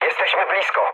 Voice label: near waypoint mood med, speech pol; War Thunder